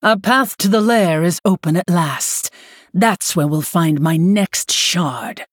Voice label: Female Human; Lady Prestor